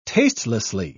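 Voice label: "tastelessly", British English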